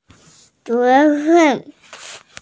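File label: "responsibility", Mandarin, hearing-impaired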